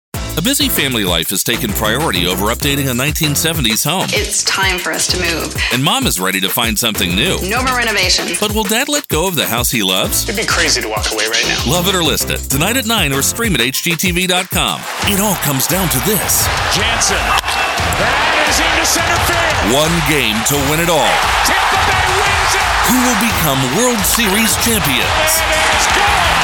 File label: Promo, voice